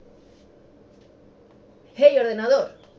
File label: wakeword es; heycomputer